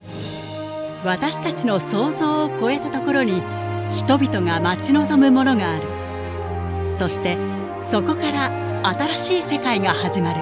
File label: japanese corporate videos